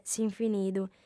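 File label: "s'infinidu", Sardinian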